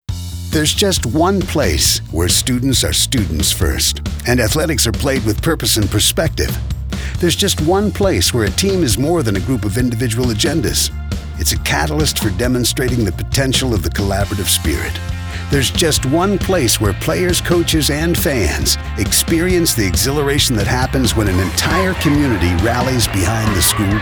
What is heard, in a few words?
audio spot